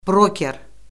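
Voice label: "broker", Azerbaijani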